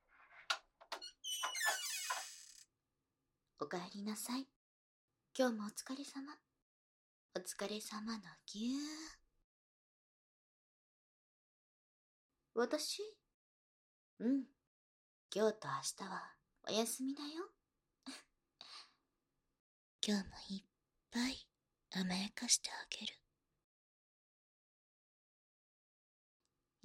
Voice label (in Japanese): お姉さんからのお願い, ASMR